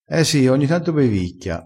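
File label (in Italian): "bevicchia", vocabolario dialettale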